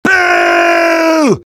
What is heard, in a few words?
jeers; demoman; Garry's Mod; tf2 content